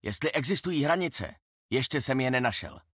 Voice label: vo, cs-cz; ekko